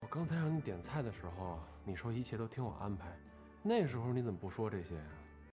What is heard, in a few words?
drama